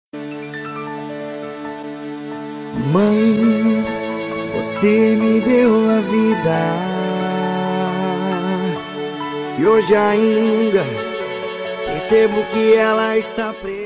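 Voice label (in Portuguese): FILHA PARA MAE RECONCILIACAO female, Telemensagem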